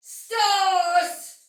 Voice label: See Ally Die Soldier Female, Battle Barks; Dialog; Sinecure